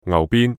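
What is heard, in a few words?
"牛鞭" Cantonese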